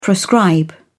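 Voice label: "proscribe", British English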